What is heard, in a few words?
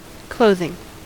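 "clothing", US English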